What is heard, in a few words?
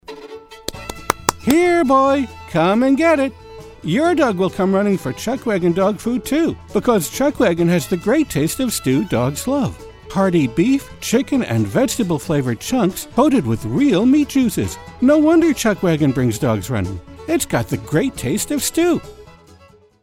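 Commercial; Chuck Wagon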